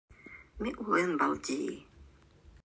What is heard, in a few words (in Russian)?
НАНАЙСКИЙ У меня хорошо идут дела; Ми улэн балдини.